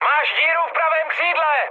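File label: right wing, Czech, mood high; speech; War Thunder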